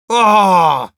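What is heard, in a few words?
negative vocalization, Russian; огорчённо; Ооооо...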